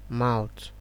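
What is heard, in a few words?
"mouth", Nigerian Pidgin, male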